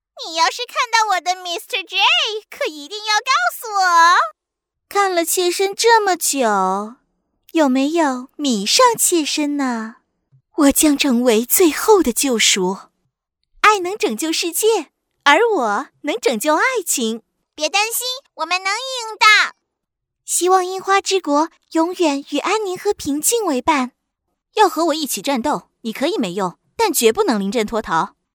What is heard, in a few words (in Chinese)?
女 中文 游戏 各角色